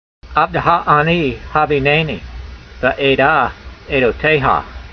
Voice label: voice, hebrew language; Psalm 119:125; avdeha-a'nee havee'naynee, ve'ayd'ah aydoteyha